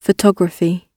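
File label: "photography" spoken, British English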